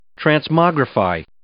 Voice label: "transmogrify", English

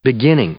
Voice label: "beginning", English; noun

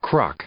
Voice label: "crock", English; noun

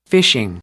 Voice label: "fishing", English; noun

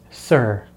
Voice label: "sir", English

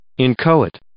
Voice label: "inchoate", English

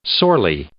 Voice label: "sorely", English

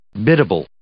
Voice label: "biddable", English

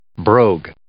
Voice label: "brogue", English

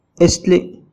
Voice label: "estli", Náhuatl; sangre; blood

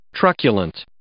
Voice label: "truculent", English

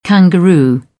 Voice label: "kangaroo", British; AmericanBritish

